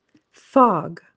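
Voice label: "fog", English; useful words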